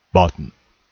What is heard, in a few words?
"button", pronunciation